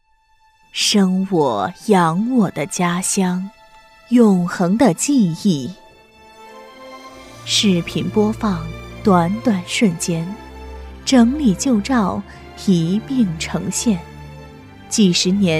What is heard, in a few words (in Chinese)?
女-记录片 家乡回忆-深情知性; 百变女王; 舒缓抒情